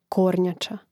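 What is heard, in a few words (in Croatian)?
"kornjaca", Hrvatski; Mrežnik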